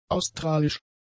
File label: "australisch", German; adjectives